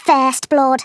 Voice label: FIRST BLOOD, Scouser

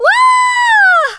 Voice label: "Bungee", Smooth Babe; Worms Armageddon

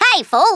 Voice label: "STUPID", Australian; Worms Armageddon

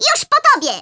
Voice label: JUST YOU WAIT, Speech, Polish; Worms Armageddon

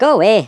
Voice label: "go away", Irish; Worms Armageddon

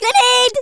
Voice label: "GRENADE", Angry Scots; Worms Armageddon

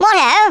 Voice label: "bye bye", Speech; Worms Armageddon

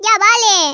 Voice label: leave me alone, Hispanic; Worms Armageddon

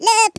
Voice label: "RUNAWAY", Norwegian; Worms Armageddon

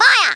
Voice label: "FIRE", Australian; Worms Armageddon

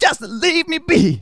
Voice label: "LEAVE ME ALONE", Speech, Soul Man

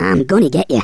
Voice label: I'LL GET YOU, Angry Scots; Worms Armageddon

